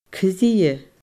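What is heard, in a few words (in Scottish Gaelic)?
"cridhe", Scottish Gaelic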